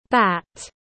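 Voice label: "Bat", English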